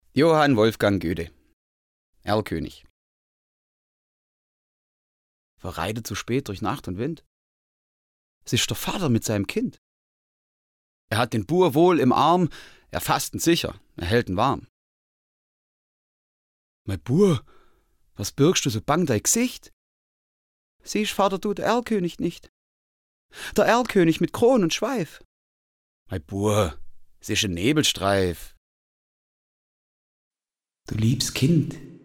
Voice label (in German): erlkoenig schwaebisch; LOFT VOICES